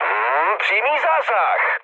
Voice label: bomb success, speech cze, mood low; War Thunder